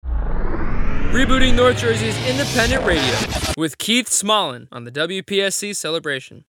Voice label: Sweeper